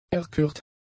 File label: "erkürt", German; adjectives